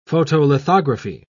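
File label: "photolithography", British English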